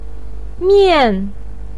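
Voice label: "mian4", Mandarin pinyin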